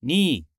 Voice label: Japanese Number